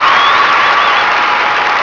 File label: nice jump, cheer; Nintendo; July 2020 Gigaleak